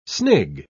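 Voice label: "snig", British English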